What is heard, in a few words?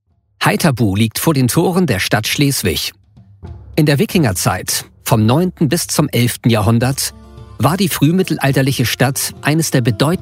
german audio guides